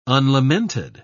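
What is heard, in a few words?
"unlamented", English, British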